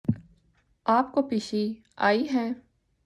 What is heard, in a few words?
Urdu